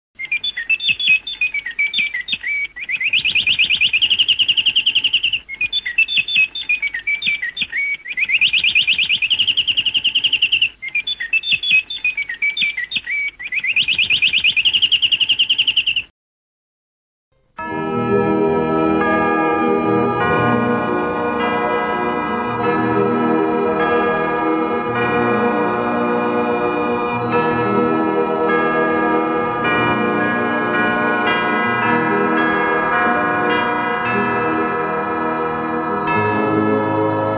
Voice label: RAI Italian Transmission; radio